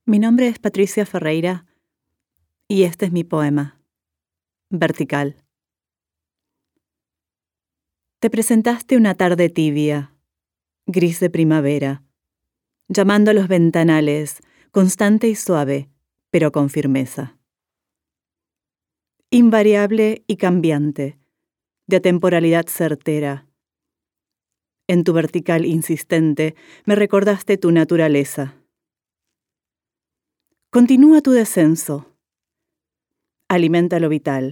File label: Spanish; Poetry-on-Buses; Vertical